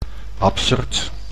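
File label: "absurd", Dutch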